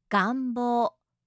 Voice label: "ganbo", japanische maedchennamen

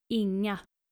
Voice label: "inga", skandinavische maedchennamen

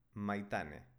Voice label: "maitane", baskische

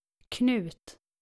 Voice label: "knut", skandinavische jungennamen

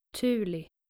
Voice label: "tuuli", skandinavische maedchennamen

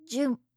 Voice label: "jun", japanische maedchennamen

